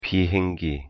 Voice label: "비행기", Korean; pronunciation

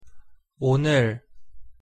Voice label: "오늘", pronunciation ko

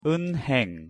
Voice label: "은행" Korean pronunciation

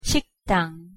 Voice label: pronunciation ko 식당

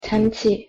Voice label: "잔치", pronunciation ko

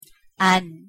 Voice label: "안", pronunciation ko

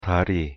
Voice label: "다리", Korean

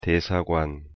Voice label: "대사관", Korean

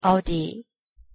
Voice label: "어디", pronunciation ko

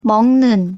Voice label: "먹는", Korean; pronunciation

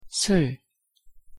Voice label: pronunciation ko 술

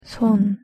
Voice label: "손", Korean; pronunciation

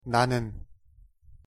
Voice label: "나는", Korean

